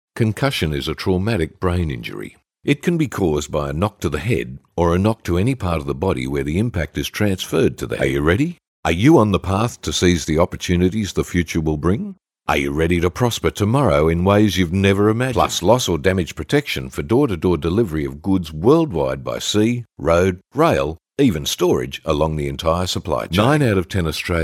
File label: Natural